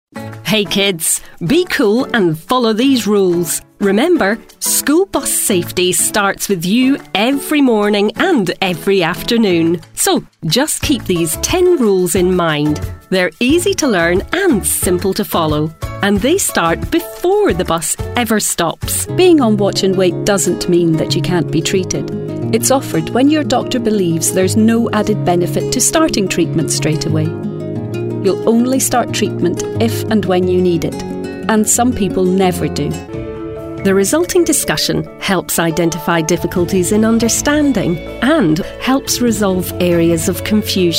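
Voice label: British English scottish elearning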